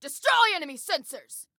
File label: Attack Sensors, Female